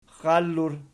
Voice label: "xxallur", Archi